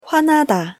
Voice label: "화나다" Korean pronunciation